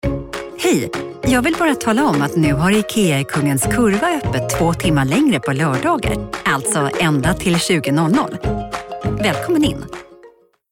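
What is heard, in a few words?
Swedish, female, reklam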